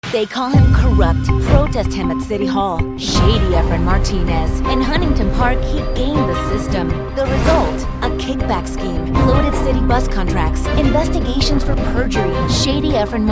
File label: LATIN AMERICAN ENGLISH POLITICAL ATTACK ADS; voiceover